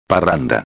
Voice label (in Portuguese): "parranda", espanhol